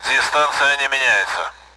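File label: Speech Sound Russian Common AWACS; flanking; DCS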